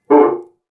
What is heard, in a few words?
grunt